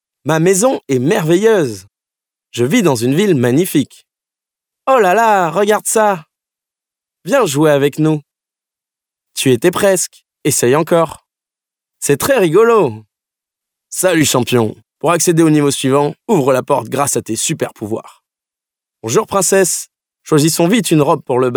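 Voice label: French male children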